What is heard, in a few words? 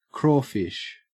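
"crawfish", Australian English; pronunciations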